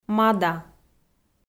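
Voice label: "мада", Komi, female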